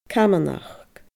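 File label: "camanachd", Scottish Gaelic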